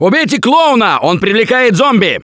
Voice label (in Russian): see clowns, left4dead2 russian, Survivor Voice; Gambler